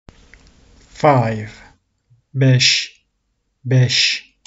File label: five 5, turkish; the talking turkish phrasebook